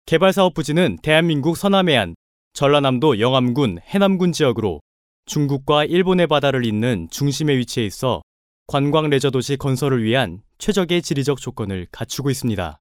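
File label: Korean, male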